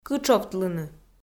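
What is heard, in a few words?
"кытшовтлыны", Komi-Zyrian, female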